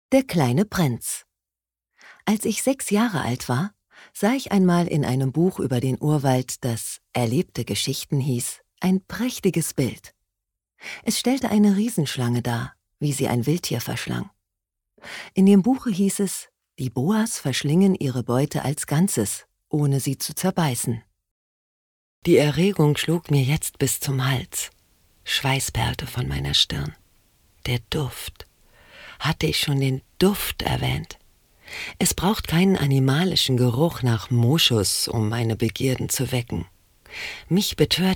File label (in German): Hoerbuch Audioguide; LOFT VOICES